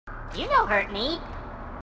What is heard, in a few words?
grunt dopey; h2dialogue